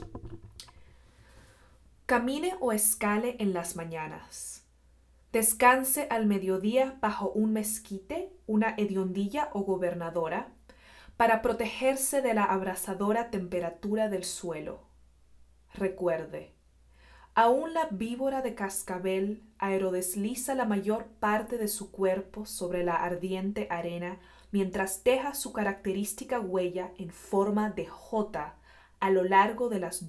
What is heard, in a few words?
SPANISH